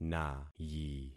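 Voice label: "nayi", concatenated syllables; Affixing experiment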